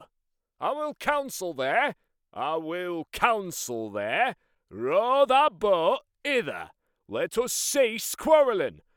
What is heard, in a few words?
Yorkshire